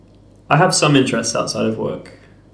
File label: interviewee male